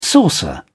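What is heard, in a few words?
"saucer", English, British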